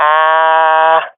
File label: scared, swat fem; vo; Counter Strike Model Pack; CS:GO